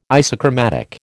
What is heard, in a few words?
"isochromatic", American